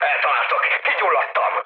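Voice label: on fire, mood med, Hungarian; speech; War Thunder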